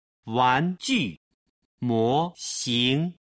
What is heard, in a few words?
"wanju moxing", Chinese